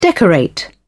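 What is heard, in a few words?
"decorate", British English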